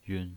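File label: "yun" Mandarin Chinese pinyin voice, neutral tone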